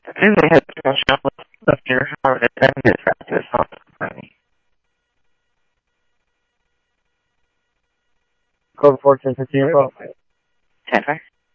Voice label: Tempe Police, scanner audio; SimulcastF-Tempe; TEMPE PD A04